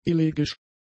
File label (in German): "elegisch", german; adjectives